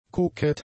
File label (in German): "kokett", German; adjectives